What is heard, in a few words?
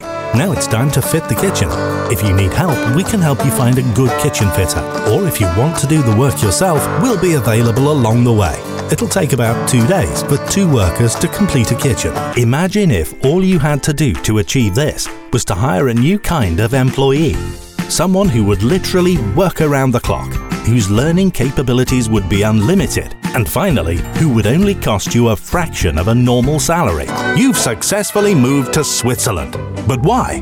English voice over promotional video